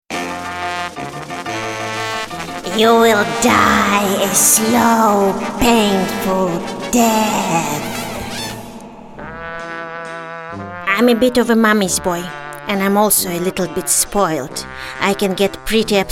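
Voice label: Ukrainian Accented English; GoLocalise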